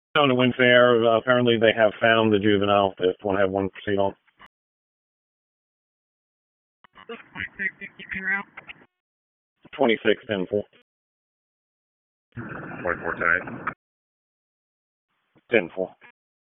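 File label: Dispatch 1, P25; wake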